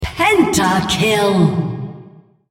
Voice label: female, On Champion Penta Kill